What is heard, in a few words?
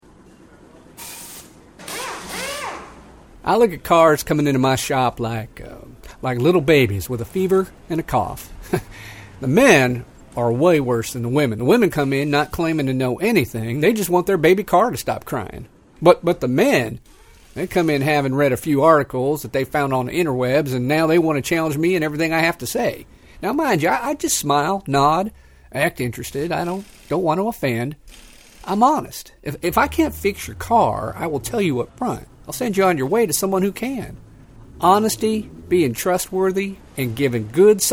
Character Southern Accent